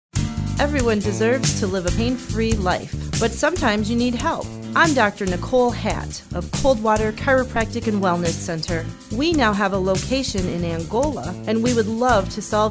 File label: Radio Ad